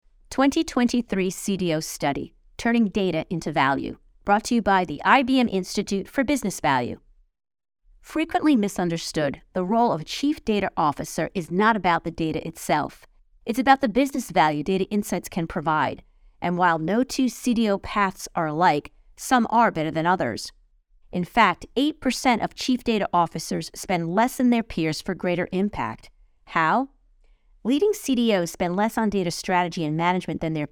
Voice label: narration; CDO 2023; C-Suite; institute business value